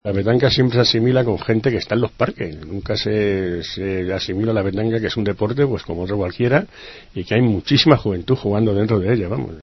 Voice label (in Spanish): noticias